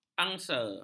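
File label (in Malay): "angsa", Malay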